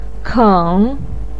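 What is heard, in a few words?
"keng", Mandarin Chinese, third tone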